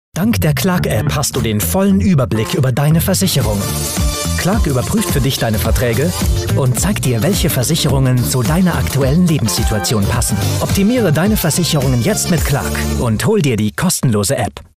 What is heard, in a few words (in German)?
Werbung freundlich DE; Clark